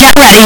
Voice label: get ready 1 bit; space harrier